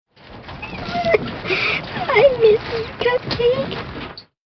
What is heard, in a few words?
my darling arabic